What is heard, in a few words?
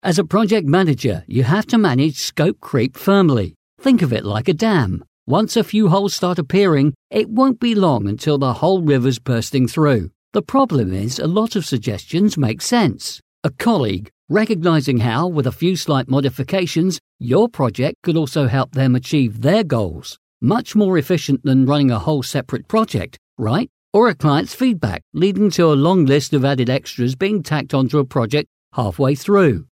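UK English, male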